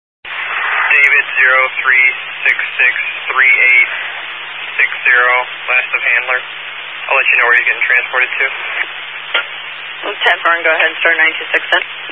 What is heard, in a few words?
Highway Patrol, Phoenix Metro West Area, scanner